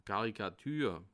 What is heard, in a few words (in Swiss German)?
"karikatur", alsace